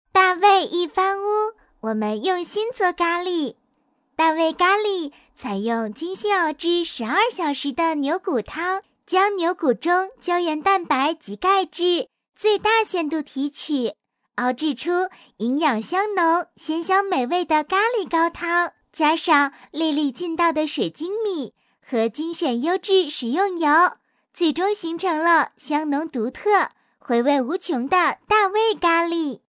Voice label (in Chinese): 女声 小女孩 咖喱